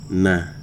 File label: "I", Wahgi; lae unitech